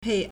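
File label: "pei4", Mandarin Chinese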